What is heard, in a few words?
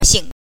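"xing", Mandarin Chinese syllable, neutral tone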